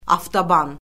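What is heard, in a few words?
"avtoban", Azerbaijani; Orfoqrafiya Lüğəti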